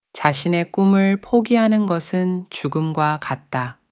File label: korean